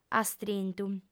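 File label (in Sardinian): "astrintu", female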